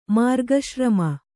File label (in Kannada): "ಮಾರ್ಗಶ್ರಮ", kannada